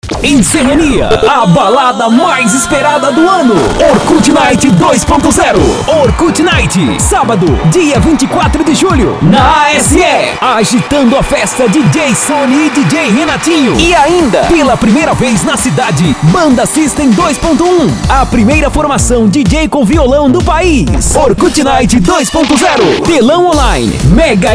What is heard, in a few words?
spot; orkut night